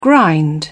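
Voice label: "grind", British English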